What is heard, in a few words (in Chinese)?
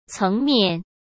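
"层面", Mandarin; chinese-flashcards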